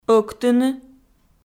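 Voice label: "ӧктыны", Komi, female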